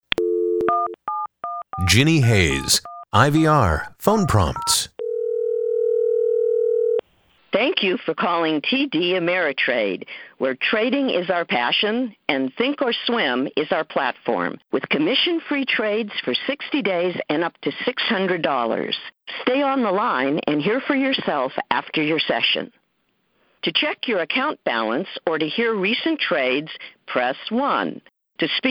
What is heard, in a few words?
ivr